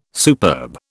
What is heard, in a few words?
"superb", British